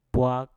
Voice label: "bwak" perahu, Mpur

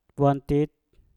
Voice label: "bwantik" tebal, Mpur

